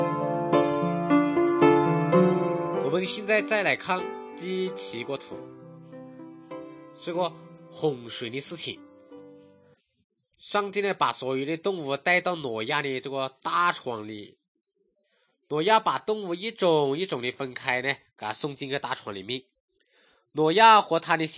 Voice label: good news shan, drama; shan thailand; kokant shan; bible society nt drama